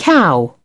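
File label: "cow", British English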